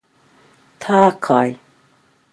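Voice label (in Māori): "takai", Māori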